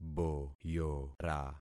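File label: "boyora", concatenated syllables; Affixing experiment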